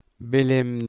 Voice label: "belemn", Ancient Greek

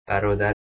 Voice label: "barodar", Persian

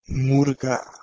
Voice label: "murga", Proto-Germanic

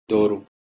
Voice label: "doru" PIE

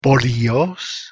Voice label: "polios" Ancient Greek

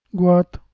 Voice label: "gwaat", Balochi

